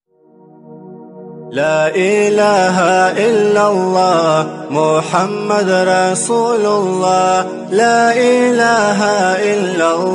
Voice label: islamic ringtone la ilaha illallah; kalma ringtone; jumma ringtone; tilawat